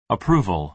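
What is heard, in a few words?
"approval", American English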